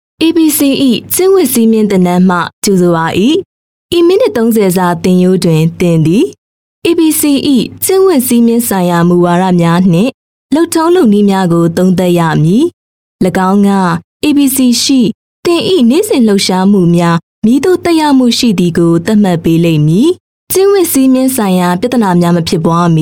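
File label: female Burmese E Learning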